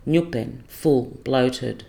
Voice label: "ngupen" full bloated

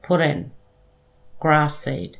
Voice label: "purrən" grassseed

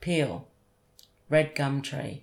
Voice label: "piyal" red-gum-tree

